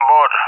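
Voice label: "Boar", English speech; Allied Flight; CallSign; DCS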